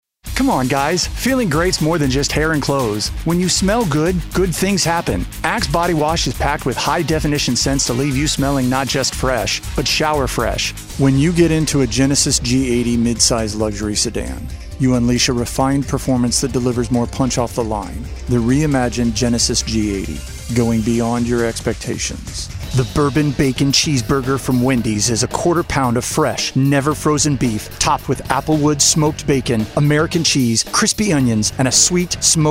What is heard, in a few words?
VO Comm